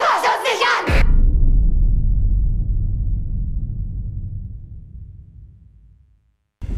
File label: horror sample, Horrorstimme; Horro-Dämonen-Stimme